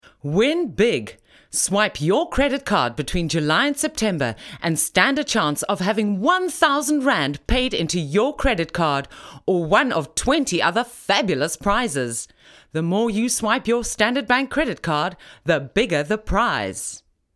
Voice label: hard sell